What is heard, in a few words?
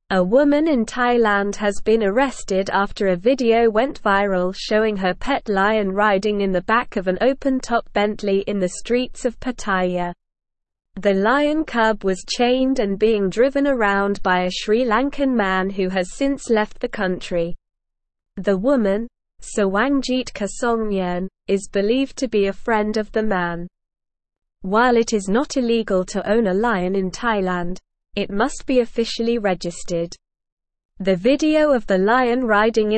English Newsroom Advanced SLOW Reading; Woman Arrested After Viral Video of Lion in Bentley